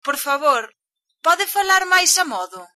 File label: slowly, galician